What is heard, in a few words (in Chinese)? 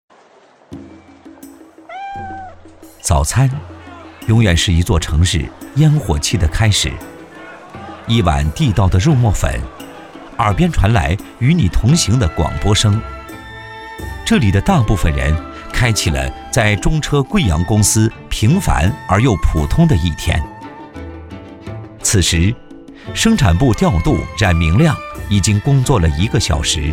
男 舌尖体 早餐